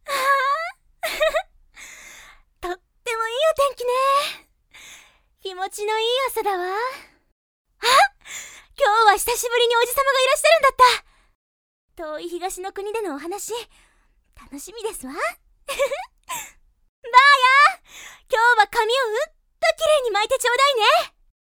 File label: lady; 少女2